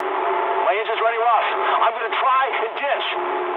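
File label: English damaged engine mood medium; War Thunder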